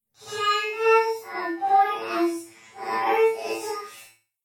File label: Robot Voice SciFi Female, Robot Voice Small Female Flanged Short Phrase; Robots; BBFX